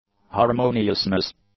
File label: "harmoniousness", English